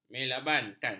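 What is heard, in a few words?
"melabakan", Malay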